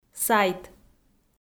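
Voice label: "сайт", Komi, female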